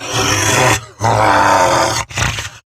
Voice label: Attack Grineer Wolf, en; Battle Barks